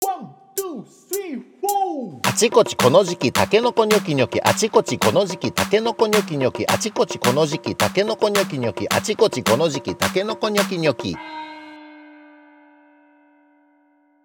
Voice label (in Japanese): 早口言葉リズム（中級編）